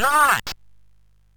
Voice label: MOS6581R2, Electronic Speech Systems; Slapshot